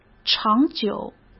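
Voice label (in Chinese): "长久", Mandarin Chinese; hsk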